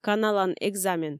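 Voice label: "каналан экзамен", Komi, female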